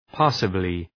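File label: "passably", English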